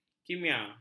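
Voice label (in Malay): "kimia", Malay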